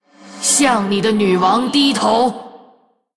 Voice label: Female Human Threaten, zh; JainaDeathknight

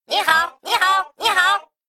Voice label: Male Mech Start; zh

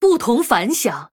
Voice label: Female Human Well Played, zh

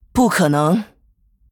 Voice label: Female Human ERROR GENERIC, zh

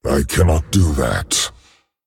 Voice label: Male Dragon ERROR PLAY; Can't play that card; I cannot do that.; en; 风暴巨龙迦拉克隆（英雄）

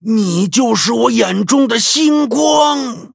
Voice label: Male Dryad Wow, zh

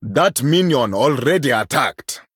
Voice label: Male Troll, ERROR MINION ATTACKED; en

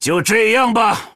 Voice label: Male Troll Oops, zh

